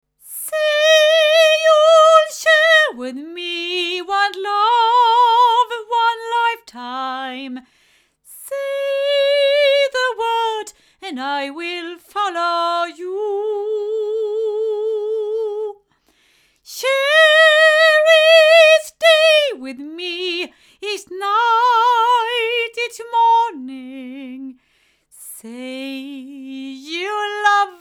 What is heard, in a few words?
Oktava MKL-5000 cardioid female